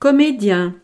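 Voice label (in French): "comedien", French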